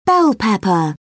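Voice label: "bell pepper", British English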